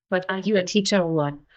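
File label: Voice Acting es, female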